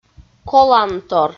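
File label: "колантор", Komi, female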